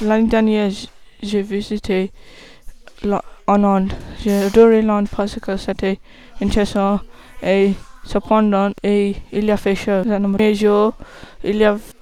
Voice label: unit 1 speaking test